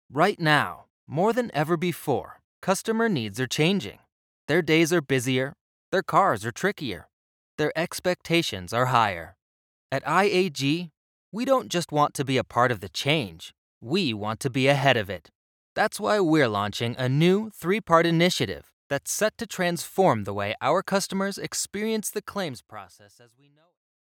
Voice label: Animated Explainer Video

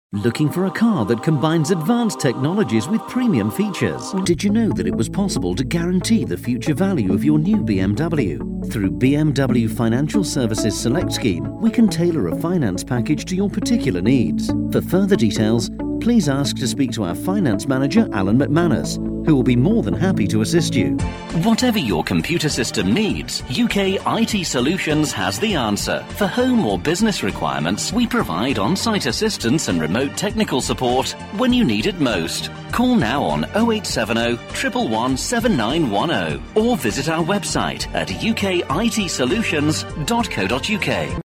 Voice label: telephone

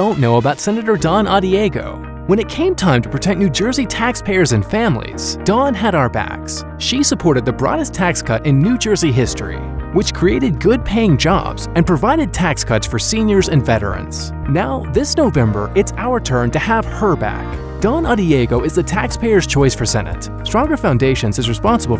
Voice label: Political Ad

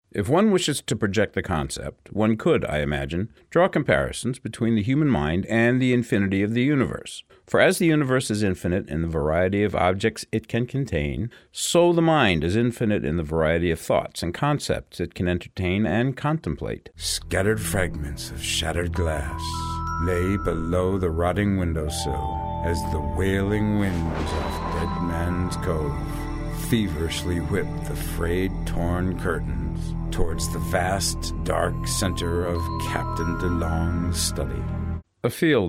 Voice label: Narrative

